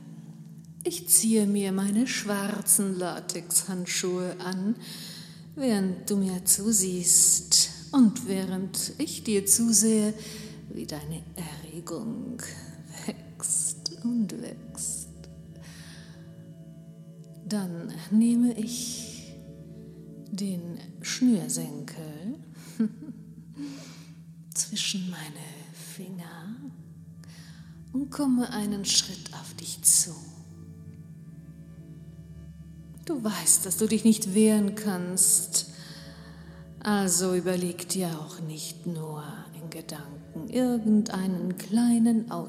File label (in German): erotische Hypnose; Ohrgasmus; Der Besuch bei Domina als hypnotisches Traumerlebnis.